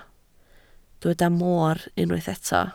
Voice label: "moor", Welsh